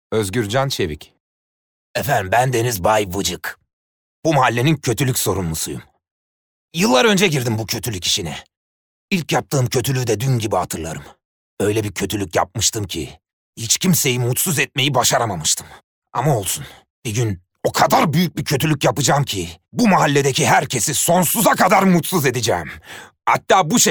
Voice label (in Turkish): animasyon